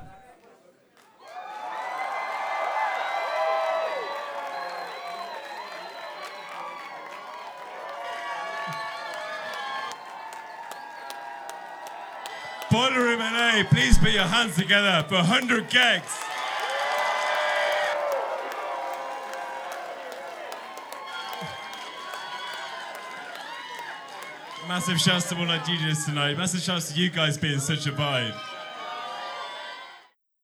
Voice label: Announcer Commentary; Boiler Room; Los Angeles, Mar 5, 2023